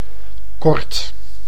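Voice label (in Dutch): "kort", Dutch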